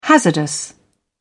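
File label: "hazardous", British English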